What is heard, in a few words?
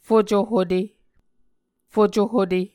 "fucohute", muscogee